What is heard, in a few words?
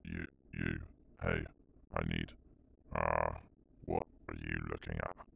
Hey h Hey you i Need uhh, Voice Lines, drug fiend; EndlessVendetta